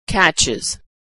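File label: "catches", American English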